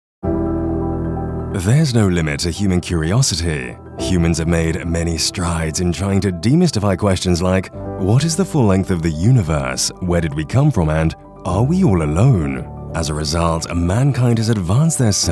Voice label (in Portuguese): Ingles; locutores nativos